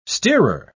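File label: "steerer", British English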